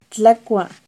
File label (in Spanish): comer-tlacua, Náhuatl; NAHUATLAHTOLLI